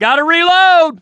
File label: reload intense, voice, mechanic